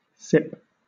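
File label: "sip" English, British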